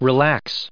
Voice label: "relax", en us